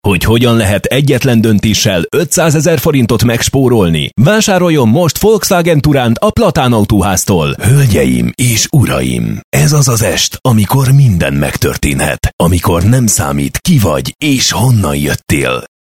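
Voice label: Hungarian, male, various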